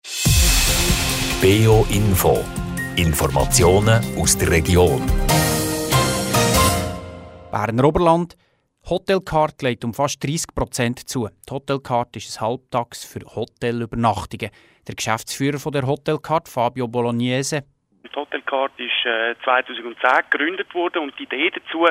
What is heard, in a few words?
radio interview